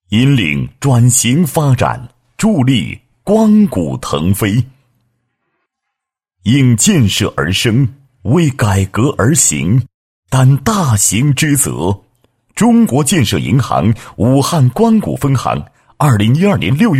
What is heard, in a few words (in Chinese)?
男 企业专题 建设银行分行宣传